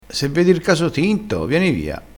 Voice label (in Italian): "caso tinto", crespinese